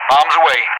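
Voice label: "bombs away", English; DCS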